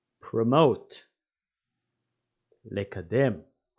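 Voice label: "promote" English, "לקדם" Hebrew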